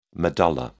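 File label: "medulla", English, British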